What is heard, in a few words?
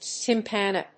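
"tympana", English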